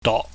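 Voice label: "dok", Cantonese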